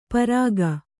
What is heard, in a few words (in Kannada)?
"ಪಾರಕ", Kannada